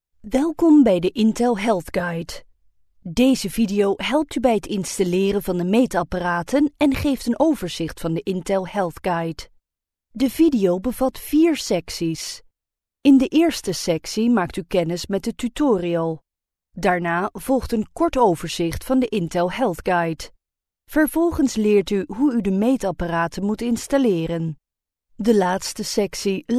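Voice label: corporate, Dutch Female; dutch voice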